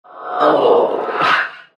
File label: anger; Dota 2; death prophet